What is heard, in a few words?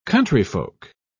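"countryfolk", British English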